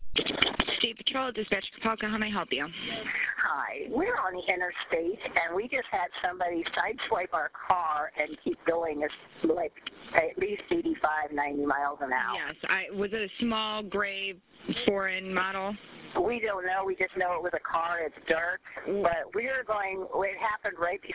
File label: 911 call